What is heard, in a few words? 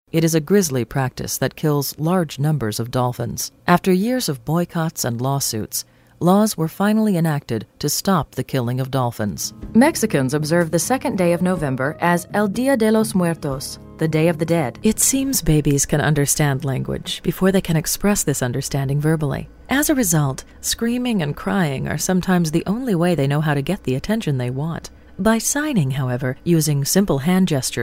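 narration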